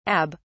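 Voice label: "abb", British English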